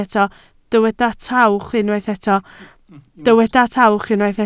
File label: "tawch", Welsh